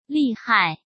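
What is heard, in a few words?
"利害", Mandarin Chinese; hsk; chinese flashcards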